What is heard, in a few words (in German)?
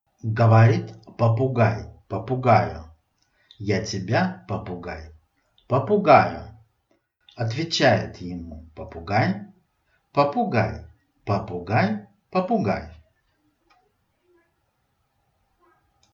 russisch